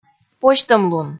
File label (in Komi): "позьтӧмлун", Komi